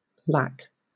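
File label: "lac", British English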